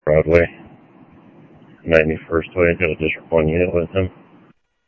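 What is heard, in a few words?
Sheriff Lake Patrol, scanner audio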